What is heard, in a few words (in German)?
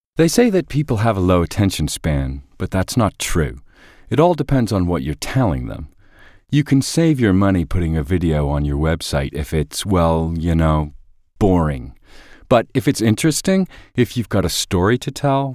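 English US Dynamisch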